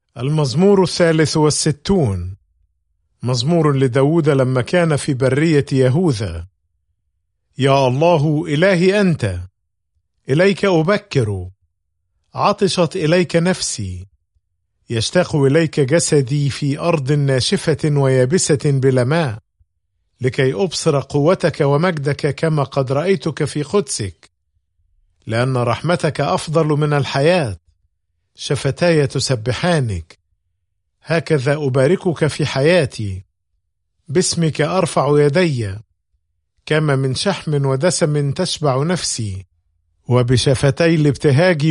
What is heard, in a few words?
Psalm, arabic bible; psalms